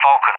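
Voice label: "Vulcan", English, UK; AirDefence; DCS; Allied Flight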